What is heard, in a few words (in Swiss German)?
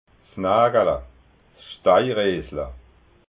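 "nagala", Alsatian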